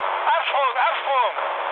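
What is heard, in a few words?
German bailout mood med, speech; War Thunder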